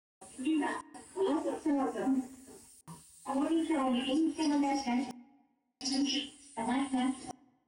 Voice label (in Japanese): 宇野バス 天神町 降車アナウンス